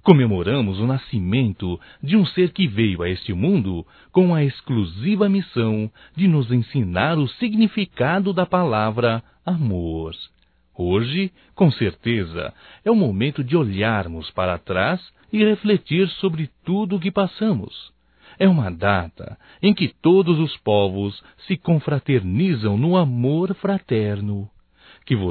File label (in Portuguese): NATAL FILHO, masc; Natal e ano novo; Telemensagens 2017